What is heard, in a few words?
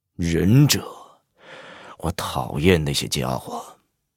taunt zh